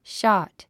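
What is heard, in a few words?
"shot", English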